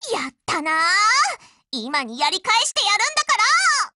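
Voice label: BREAK Japanese, Voice; GF Alarm; APSMod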